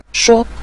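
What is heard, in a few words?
"shuo", Mandarin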